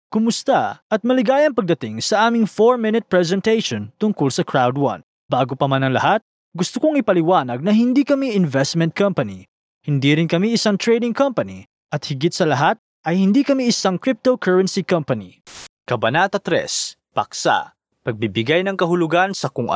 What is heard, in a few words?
tagalog corporate videos